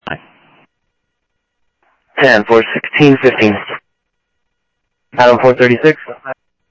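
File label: Sheriff East County, scanner audio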